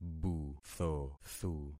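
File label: "buthothu", concatenated syllables; Affixing experiment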